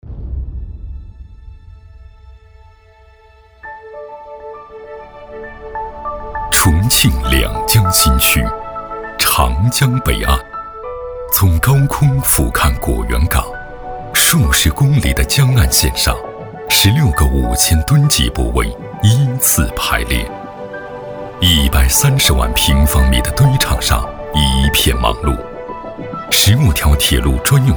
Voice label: 男,纪录风景片,大气浑厚; 重庆果园港

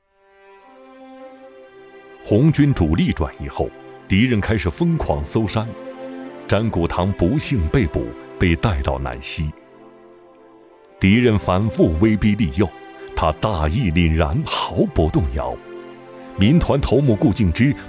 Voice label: 男 党建专题; 党建成果展示

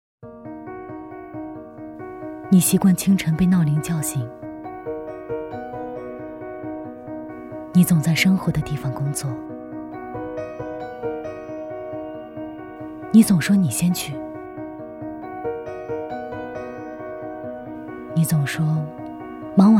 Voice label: 女 旁白类

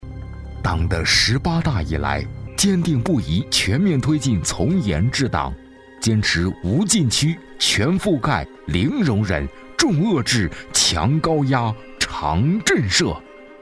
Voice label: 男 专题 反腐零容忍 讲述震慑

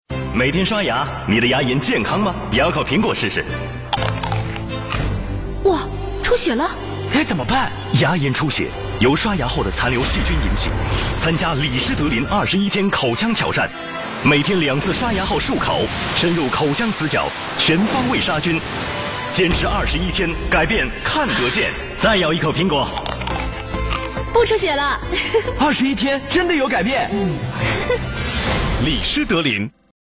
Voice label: 男 国语男声配音

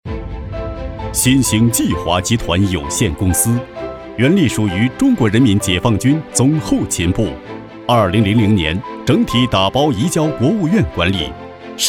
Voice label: 男 企业专题; 新兴际华

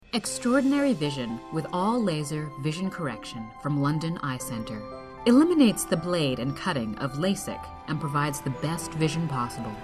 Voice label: 亲切自然 眼科广告

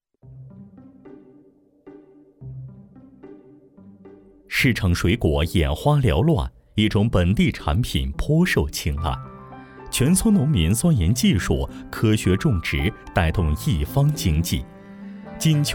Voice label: 栏目解说 猕猴桃

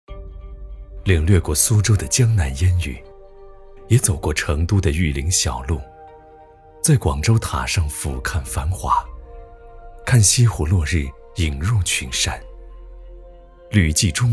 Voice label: 男 旁白

